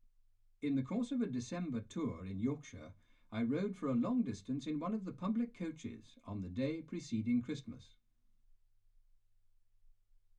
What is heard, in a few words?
male speech english